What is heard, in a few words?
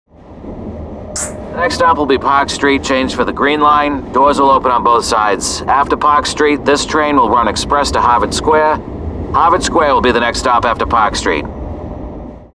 Boston, MBTA The T Announcement